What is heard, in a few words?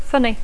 "funny", English; adjectiu; que fa riure; divertit; graciós; estrany